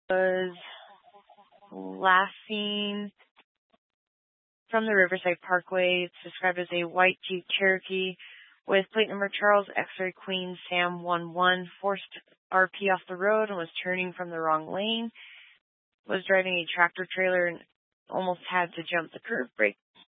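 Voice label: Incident Tac 3, Scanner audio recording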